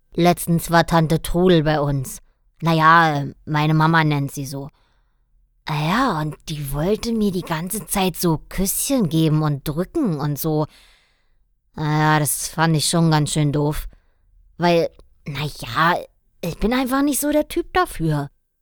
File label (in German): Junge